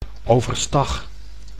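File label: "overstag", Dutch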